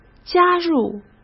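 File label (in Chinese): "加入", Mandarin Chinese; hsk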